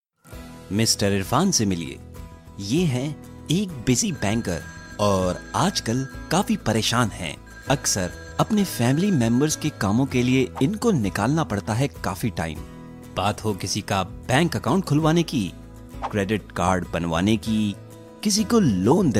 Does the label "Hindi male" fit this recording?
Yes